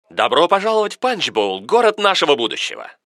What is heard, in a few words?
narrator